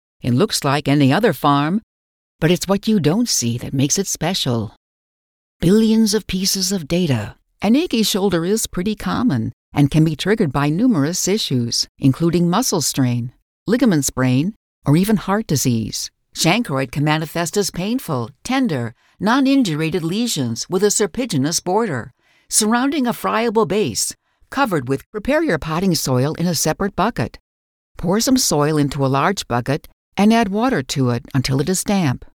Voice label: Corporate Explainer Narration